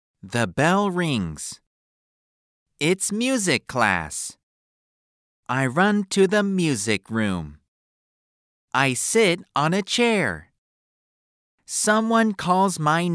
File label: Read